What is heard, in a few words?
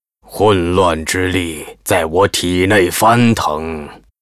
pissed zh; Hero demon hunter